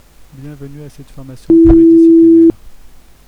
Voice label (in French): discours bruite; STI2D